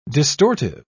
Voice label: "distortive", pronounce